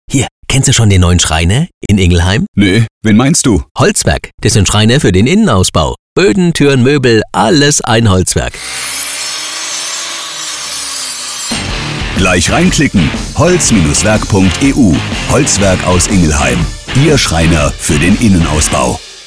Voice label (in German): Radiospot